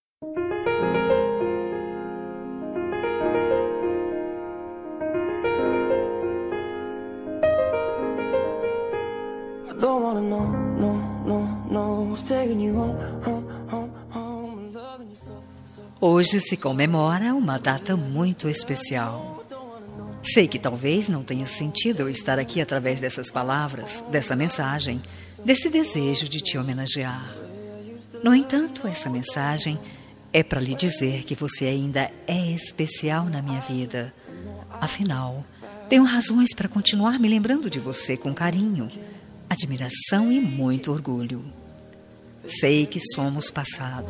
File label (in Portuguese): ex fem; Dia dos Namorados; para ex namorada; voz masculina